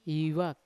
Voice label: "ivok" lightning, Yawa

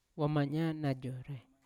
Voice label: "wamanya najorai" to walk, Yawa

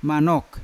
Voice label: "manok" bird of paradise, Yawa

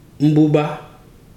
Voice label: "race", Ibibio

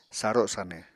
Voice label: "saro sanw", Apatani

